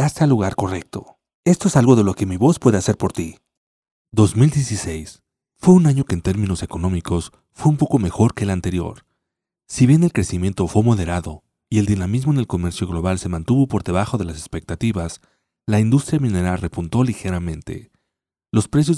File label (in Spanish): locutor mexicano; mexico